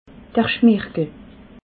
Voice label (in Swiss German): "schmirgel", alsace